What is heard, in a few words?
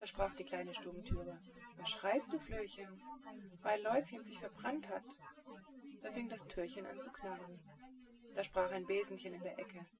female synthconv 130ms 5cm src; SiSEC08; SiSEC underdetermined